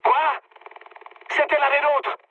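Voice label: player killed ally, mood medium, French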